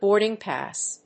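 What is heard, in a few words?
"boarding pass", English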